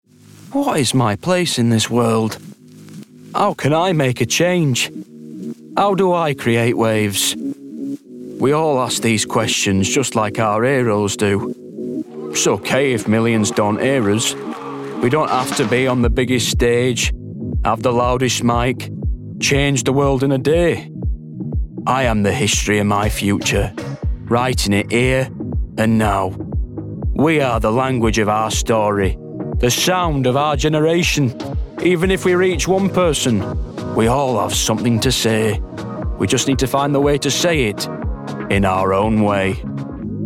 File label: Commercial; Puma